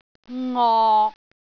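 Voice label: "ngok6", Cantonese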